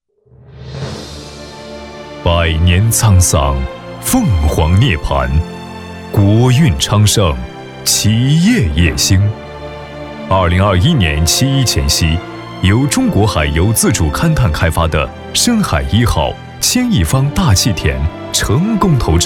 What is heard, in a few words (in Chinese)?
高端 男 大气 浑厚; 中国海油